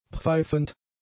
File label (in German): "pfeifend", German; adjectives